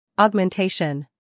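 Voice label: "scandal", American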